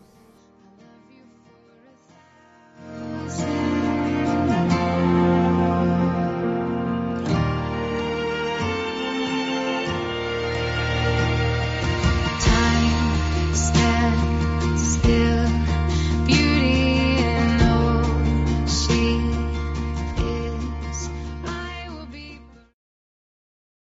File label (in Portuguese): mae, Dia das Mulheres para Mãe – Voz Masculina; linda